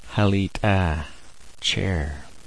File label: "ha'lit'aa", smalgyax